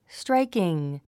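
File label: "striking", English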